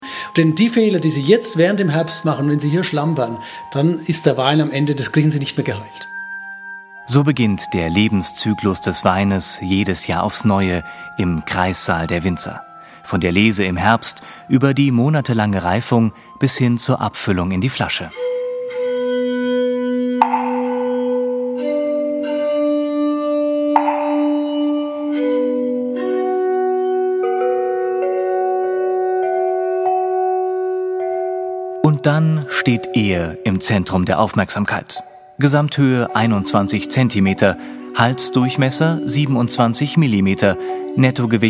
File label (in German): Kommentar Freundlich